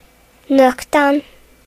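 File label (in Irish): "newspaper", Irish; gcompris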